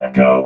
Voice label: echo, robot